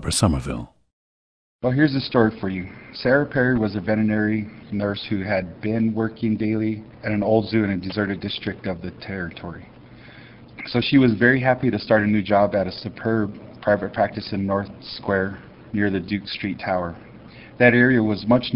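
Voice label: new mexico, International Dialects of English Archive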